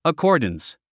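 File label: "accordance", English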